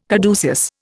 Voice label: "caduceus", Indian